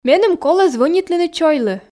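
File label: Komi, female; меным колӧ звӧнитлыны чойлы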